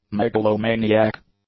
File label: "megalomaniac", english; adjectives